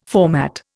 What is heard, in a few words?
"format", Indian